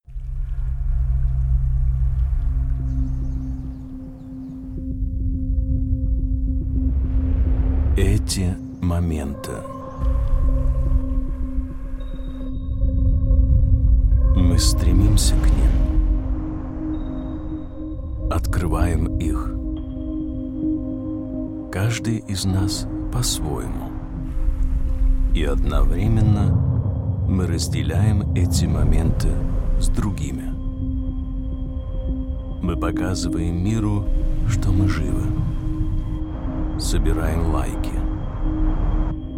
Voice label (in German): Produktfilm kurz; porsche